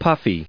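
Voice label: "puffy", US English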